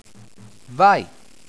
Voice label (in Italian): "Vai", Italian